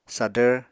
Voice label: "sadvr", Apatani; Household Items

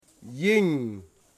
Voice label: "rain", Wamut

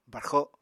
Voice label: "barxo", Apatani

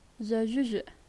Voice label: "boy", naxi

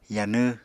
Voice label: "yanw", Apatani

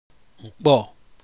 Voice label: nasal mucus var NkpO, ibibio

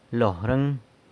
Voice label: "lorëng", their faces; san lucas quiavini

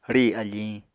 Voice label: "rì afiñ", Apatani

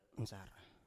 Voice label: "nsar", Biak

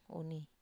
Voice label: "uni", Wamesa

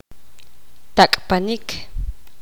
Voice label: poqomam; empujar

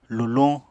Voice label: "luluñ", Apatani

